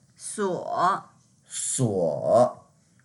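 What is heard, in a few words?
"suo3", Mandarin Chinese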